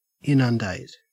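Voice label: "inundate", Australian English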